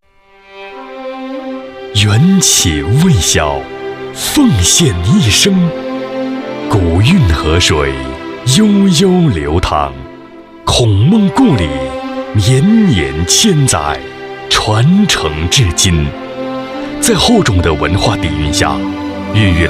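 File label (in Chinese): 男32 【专题】卫生学校（感情）; 济宁; 高端广告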